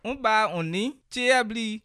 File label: write, bassa